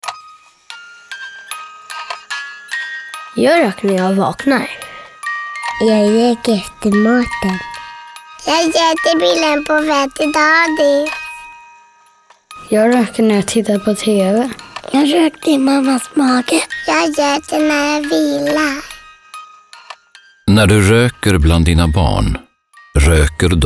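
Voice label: voice over; Passiv Rokning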